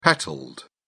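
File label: "petalled", British English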